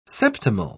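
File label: "septimal", British English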